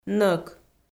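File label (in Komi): "нӧк", Komi, female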